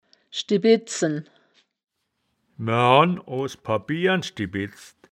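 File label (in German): "schdibid-zen", wittlicher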